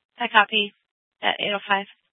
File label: Police Dispatch; Mesa Police Department; 3 Red Mountain Dispatch